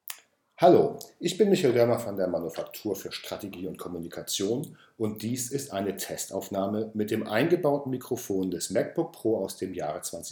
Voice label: Test Macbook Pro